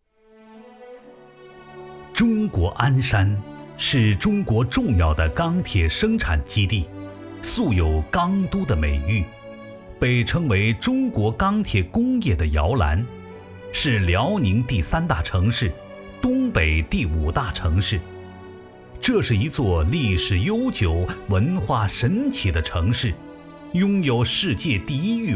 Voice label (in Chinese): 男 企业宣传 电商服务中心; 鞍山